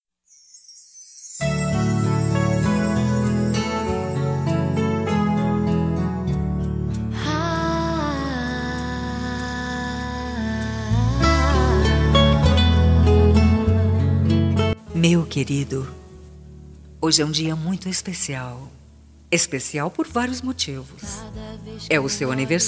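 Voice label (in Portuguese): aniversario evangelico para esposo, telemensagem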